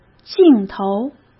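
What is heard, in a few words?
"镜头", Mandarin Chinese; chinese flashcards; hsk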